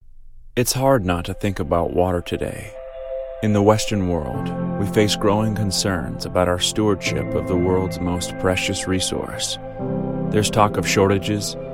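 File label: American English, Narration; GoLocalise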